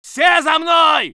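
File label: voice soviet infantry; Red Orchestra 41-45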